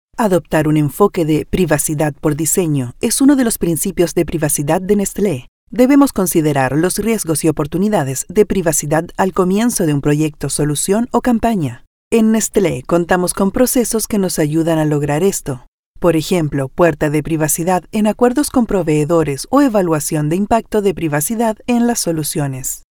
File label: LEARNING CURSO, Latin Spanish Neutral Female, E-Learning, Capacit. De Personal; NESTLE